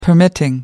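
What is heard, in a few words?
"permitting", British English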